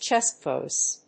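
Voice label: "chest voice", English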